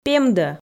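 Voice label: "пемдӧ", Komi, female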